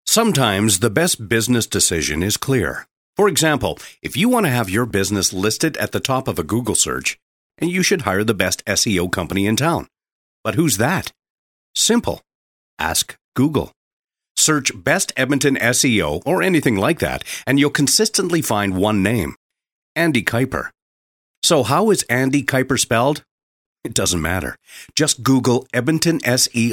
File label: radio ad